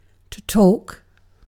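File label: "talk", British English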